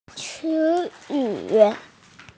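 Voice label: "word", female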